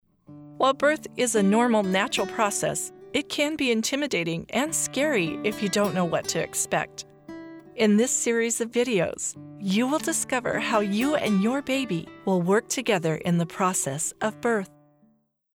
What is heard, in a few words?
Childbirth Education Video